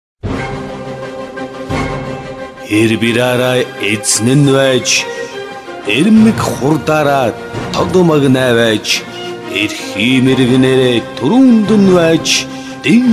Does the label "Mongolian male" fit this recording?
Yes